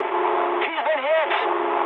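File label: aircraft destroyed mood high, speech English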